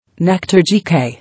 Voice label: "Nectar", English